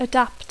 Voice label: "adapt", English